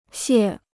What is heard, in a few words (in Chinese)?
"屑", Chinese